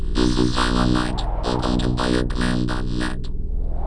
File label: Cylon Voice; Cylon Knight